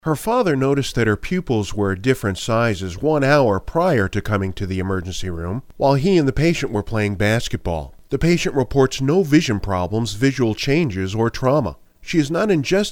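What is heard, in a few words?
english american male voice medical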